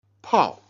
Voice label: "jian", Chinese